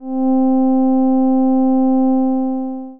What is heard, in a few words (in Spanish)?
u sint, LPC